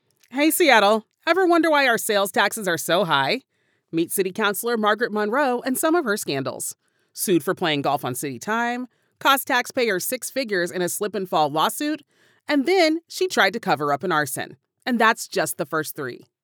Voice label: Seattle Political Ad, Seattle Democratic Ad, African-American, Female Voice Over; Democratic Spots